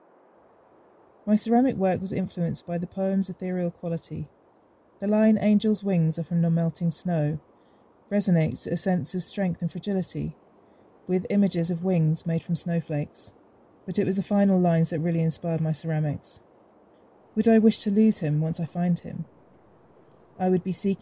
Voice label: audio voiceover